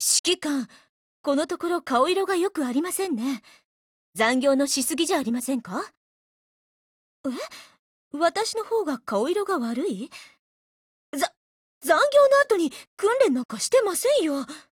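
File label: DIALOGUE, Japanese; CM901; 少女前线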